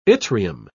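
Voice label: "yttrium", British English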